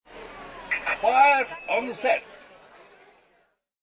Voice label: Quiet On The Set, Male